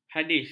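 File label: "hadis", Malay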